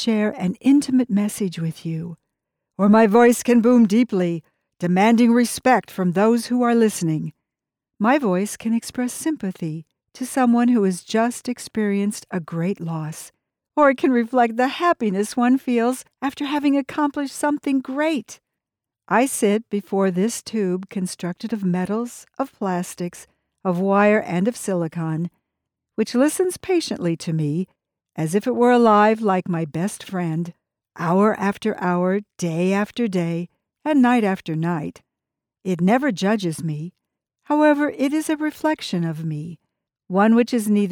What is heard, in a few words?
Different Vocal Tones